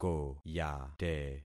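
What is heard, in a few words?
"koyate", concatenated syllables; Affixing experiment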